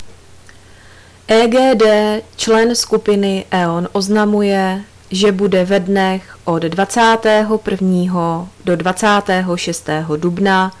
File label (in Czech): odecty elektromeru, rozhlas